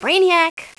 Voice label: very happy "BRANIAC!"; Spades Deluxe 1.6